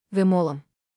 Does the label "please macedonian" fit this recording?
Yes